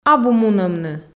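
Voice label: "абу мунӧмны", Komi, female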